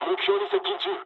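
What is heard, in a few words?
near waypoint mood high, speech japanese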